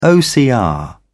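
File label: "ocr", English, British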